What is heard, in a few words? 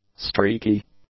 "streaky", English; adjectives